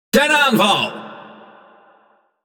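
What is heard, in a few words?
Speech, Dutch; Lets Battle; World Of Warships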